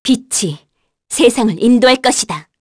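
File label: Vox Victory, Korean; Aselica; kingsraid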